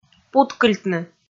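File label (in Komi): "путкыльтны", Komi, female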